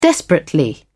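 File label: "desperately", British English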